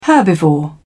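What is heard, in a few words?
"herbivore", British English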